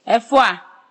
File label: how are you, ewe